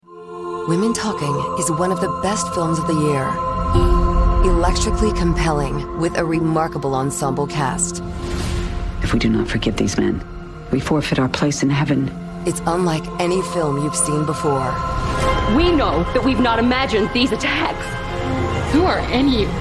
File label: Women Talking, TV Spots